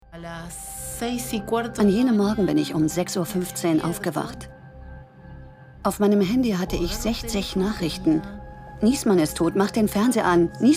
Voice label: Doku; ZDF; Nisman

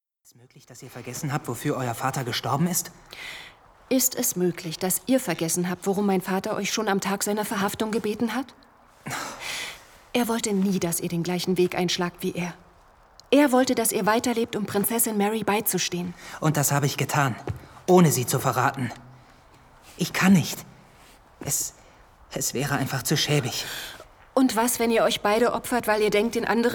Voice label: Hörspiel

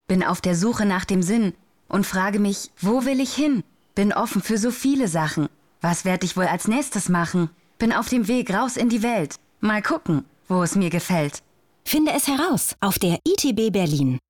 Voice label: spot; itb

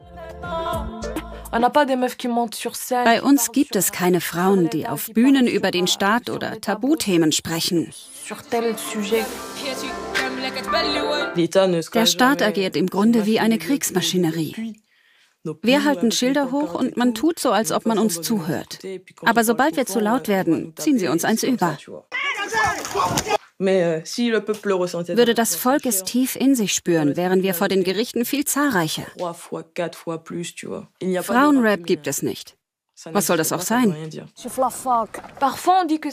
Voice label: Doku Voiceover; stereo

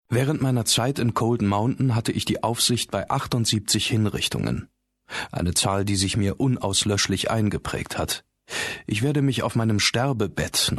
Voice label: hoerbuch

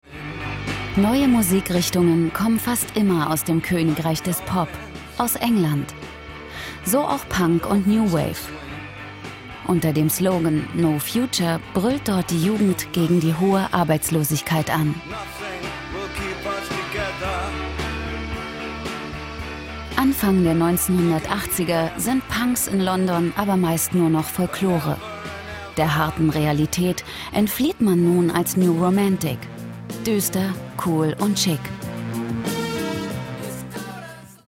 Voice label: Dokumentation; LOFT VOICES

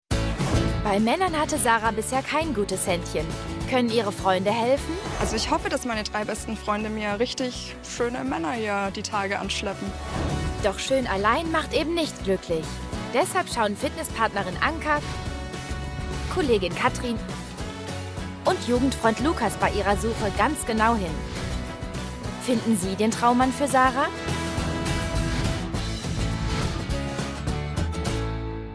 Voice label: Voice Over TV; Vernetzt Verkuppelt Verliebt

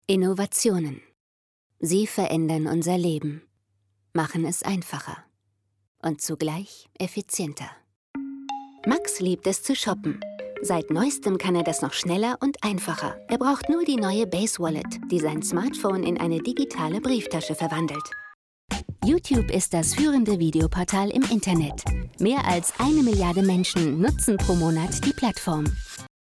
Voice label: Imagefilm Mix; LOFT VOICES

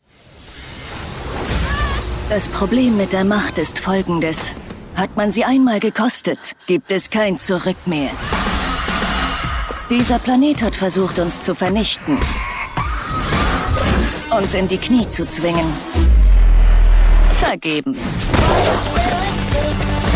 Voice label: Synchron Computerspiele Trailer; Outriders

